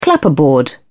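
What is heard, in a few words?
"clapperboard", British English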